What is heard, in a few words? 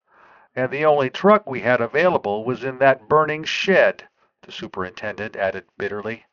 Synthetic